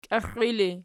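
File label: "kixxii" ergative, Archi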